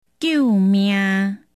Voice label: "help", Taiwanese

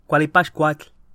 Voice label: "easter", nahuatl

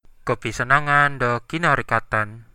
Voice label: welcome, centraldusun

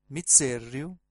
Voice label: my name is, Sardinian

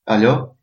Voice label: hello, albanian gheg

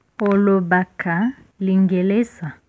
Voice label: do you speak english, Lingala; Olobaka Lingelésa?

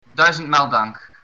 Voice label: thanks, dutch

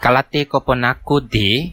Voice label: do you understand, kadazan

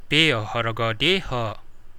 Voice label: how much, kadazan

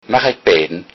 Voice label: dance, low saxon

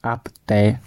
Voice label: good luck, gwentian

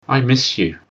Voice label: "I miss you", English

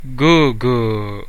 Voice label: cheers, central dusun

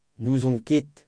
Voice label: I don't know breton

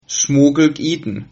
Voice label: bon appetit, Sylt Frisian

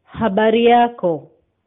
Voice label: how are you, swahili

